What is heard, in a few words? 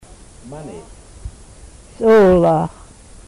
money, Twana